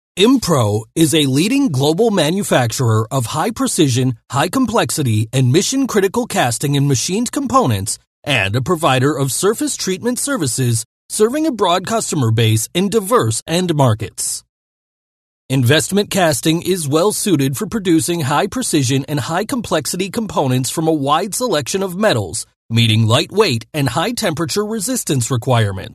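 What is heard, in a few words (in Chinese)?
外籍男英_外语_美式英语_样音_稳重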